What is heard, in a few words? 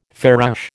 "farouche", American